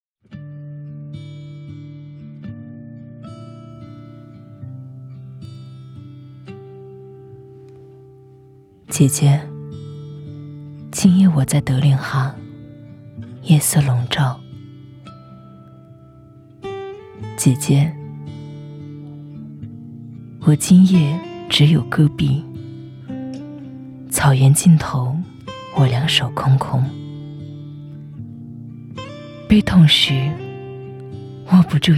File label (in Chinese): 诗歌朗诵日记